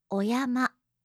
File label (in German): "oyama", japanische